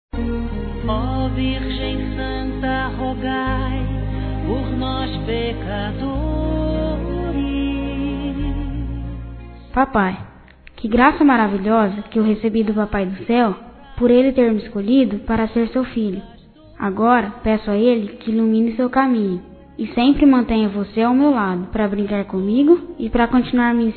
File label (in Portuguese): Aniv. Pai Religioso Masculino; Telemensagens 2017; Aniversários; Aniversário Infantil